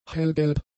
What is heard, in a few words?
"hellgelb", German; adjectives